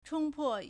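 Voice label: "chōngpò", Chinese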